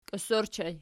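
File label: "kios" ergative plural, Archi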